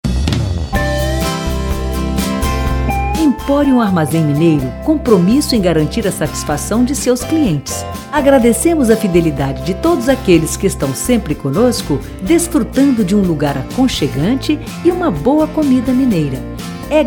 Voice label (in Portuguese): radio; emporium armazem mineiro